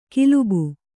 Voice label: "ಕಲುಬಿ", Kannada

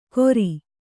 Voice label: "ಕೊರಿ", Kannada

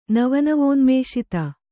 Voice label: "ನವನವೋನ್ಮೇಷಿತ", kannada

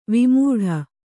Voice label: "ವಿಮೂಢ", Kannada

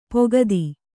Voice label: "ಪುಗುತೆ", Kannada

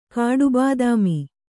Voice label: "ಕಾಡುಬಾದಾಮಿ", Kannada

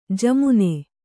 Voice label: "ಝಮ್ಮನೆ", Kannada

